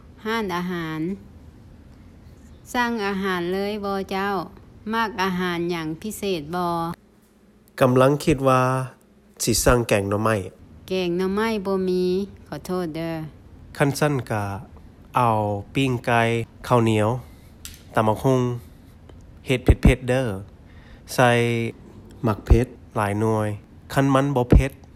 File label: at the restaurant, conversations